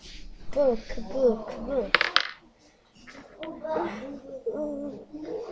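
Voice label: "book", spoken word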